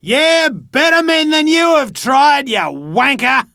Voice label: Dialog Barks Battle, en; Warframe; Chipper